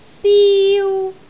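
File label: "siu1", Cantonese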